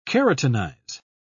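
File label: "keratinize", British English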